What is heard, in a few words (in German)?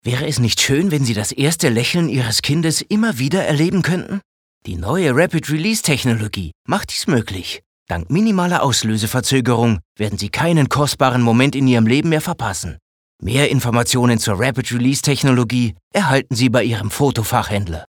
Freundlich